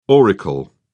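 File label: "auricle", British English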